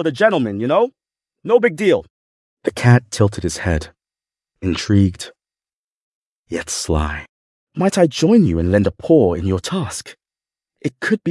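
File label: Six Little Mice, male British story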